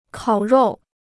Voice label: "烤肉", Chinese